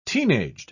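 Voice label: "teenaged", British English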